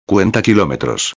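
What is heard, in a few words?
"cuentakilometros", Spanish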